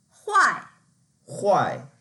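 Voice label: "huai4" Mandarin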